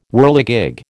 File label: "whirligig", American